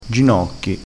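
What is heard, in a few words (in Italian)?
"ginocchi", vocabolario dialettale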